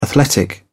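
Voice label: "athletic", English, uk British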